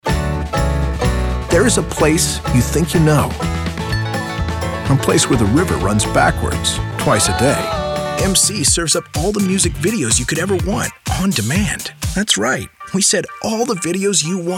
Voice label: Friendly